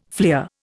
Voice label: "fleer", Indian